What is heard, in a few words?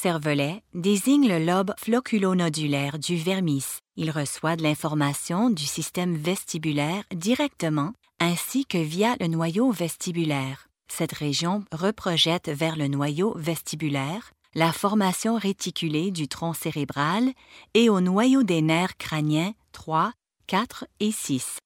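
French Canada, female, voice over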